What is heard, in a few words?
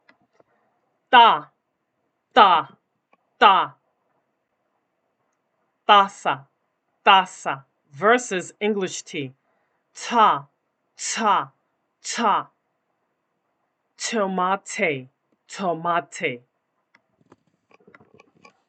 Spanish T